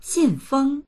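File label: "xin4feng1", Mandarin Chinese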